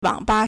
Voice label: "wang3ba", Chinese; 网吧; 網吧; wǎngba; Internet cafe